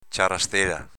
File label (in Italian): "ciarastela", dialetto veneto; s.f. chiarastella (canto natalizio)